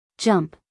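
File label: "jump", American English, female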